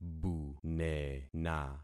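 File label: "bunena", concatenated syllables; Affixing experiment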